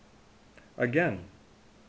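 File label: "again", Canadian, male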